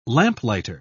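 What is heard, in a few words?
"lamplighter", British English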